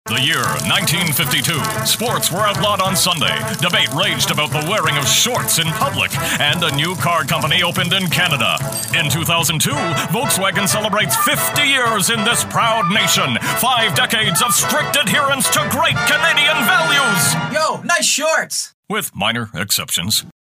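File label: 1960s NEWS ANCHOR voiceover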